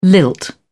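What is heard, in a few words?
"lilt", British English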